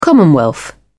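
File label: "commonwealth", British English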